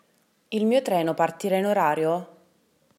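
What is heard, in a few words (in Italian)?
Treno In Orario, Italian; italybytrain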